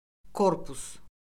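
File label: "korpus", Azerbaijani; Orfoqrafiya Lüğəti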